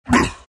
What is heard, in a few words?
pain; dota; earth spirit